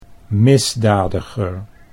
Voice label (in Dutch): "misdadiger", Dutch